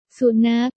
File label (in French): Chien, thai